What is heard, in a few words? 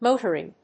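"motoring", English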